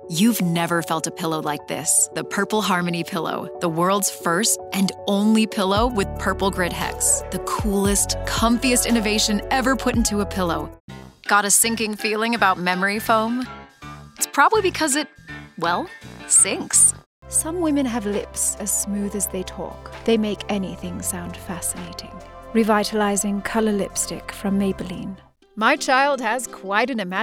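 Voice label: Commercial, Voiceover Female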